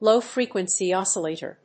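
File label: "Low Frequency Oscillator", English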